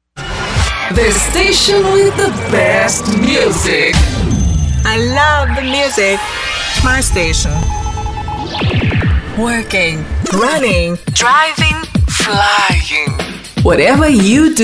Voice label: radio ingles